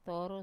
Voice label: "toru", three, wamesa

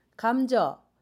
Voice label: sweetpotato, jeju

